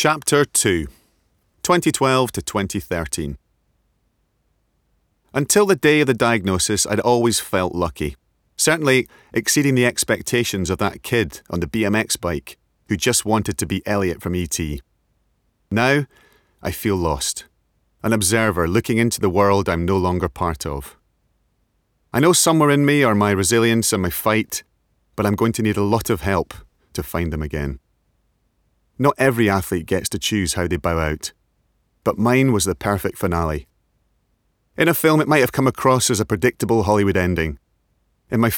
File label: Audiobook Example; Sir Chris Hoy